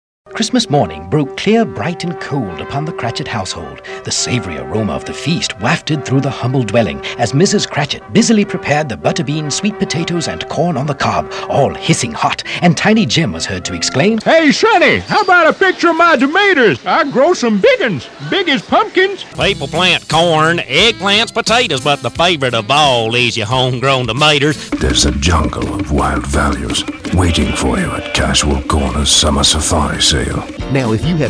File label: Character Voices